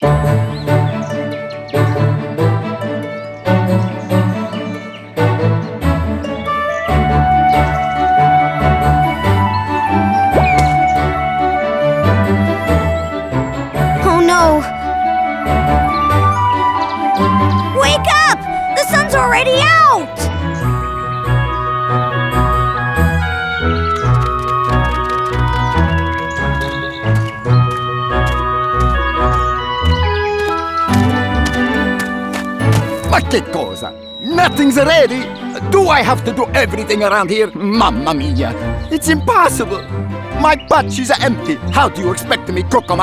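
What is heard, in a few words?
intro British; arthur and the revenge of maltazard; Wii; Intro Uk L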